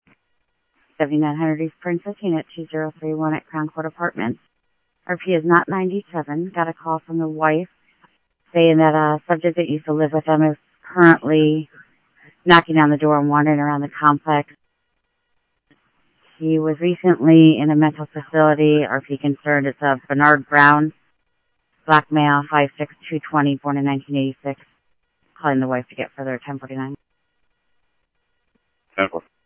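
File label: police scanner radio, Scottsdale Police; ThompsonPeakHigh; SCTSDLE PD - PD2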